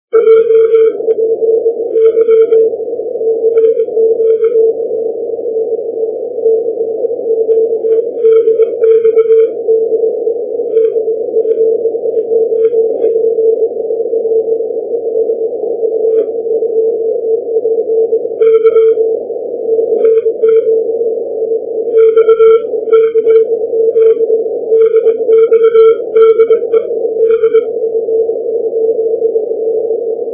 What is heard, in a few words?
cq 160m sp